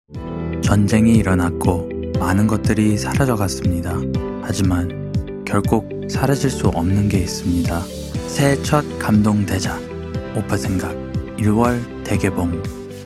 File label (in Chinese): 韩语男 温和成熟叙述